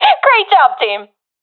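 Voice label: round won, professional female; vo; agents; Source Model Packs; Counter Strike Model Pack; CS2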